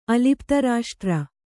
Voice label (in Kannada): "ಅಲಿಪ್ತರಾಷ್ಟ್ರ", kannada